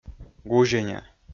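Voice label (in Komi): "гузеня", Komi, male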